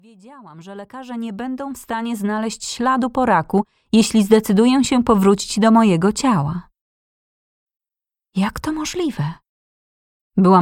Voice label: Polish